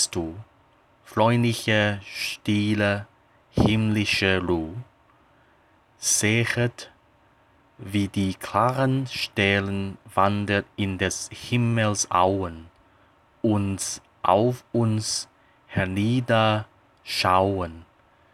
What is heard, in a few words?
Die Nacht (the German pronunciation)